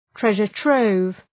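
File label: "treasure trove", English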